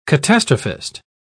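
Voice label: "catastrophist", British English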